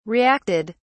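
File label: "reacted", British English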